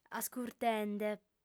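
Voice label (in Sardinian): "ascurtende", female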